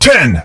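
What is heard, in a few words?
"Ten", English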